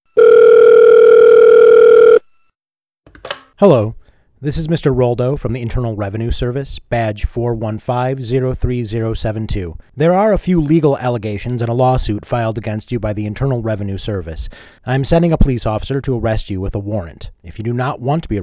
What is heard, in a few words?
Radio Spot